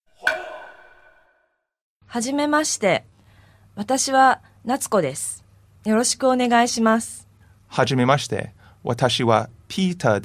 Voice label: dialog; JapanesePod101; Beginner Season 1